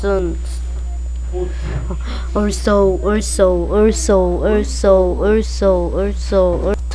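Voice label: "also", spoken word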